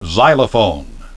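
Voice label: "xylophone", English pronunciation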